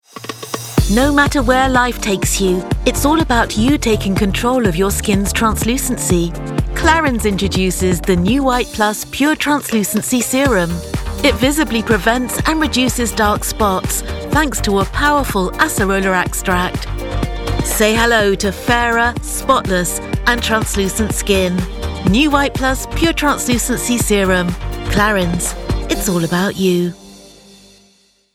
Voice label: commercial fresh young; clarins